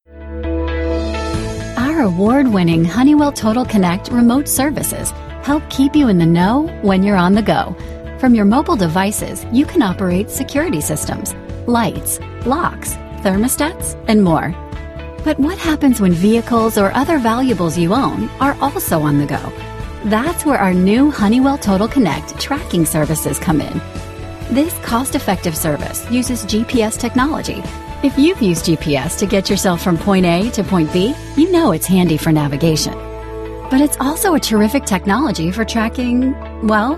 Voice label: Friendly Corporate Narration